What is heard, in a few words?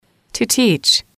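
"to teach", English, female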